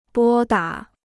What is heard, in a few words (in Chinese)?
"拨打", Chinese